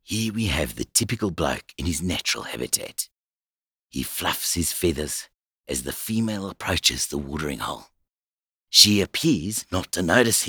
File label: Australian Accent; podcasts and voice over